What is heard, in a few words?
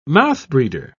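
"mouthbreeder", British English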